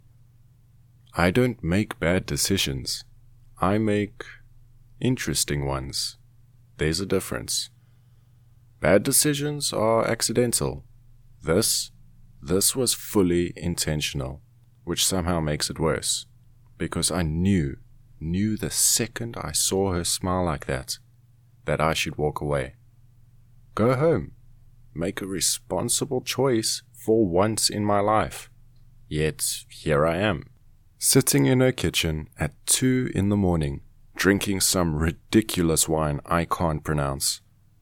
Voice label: Comedic Romance Male POV